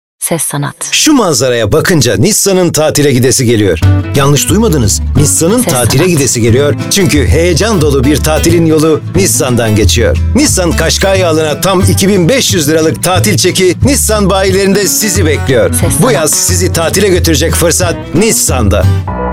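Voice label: Turkish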